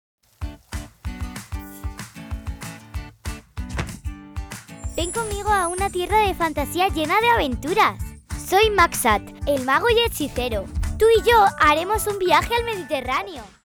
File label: spanish promos; tem 9 anos e é locutora nativa de espanhol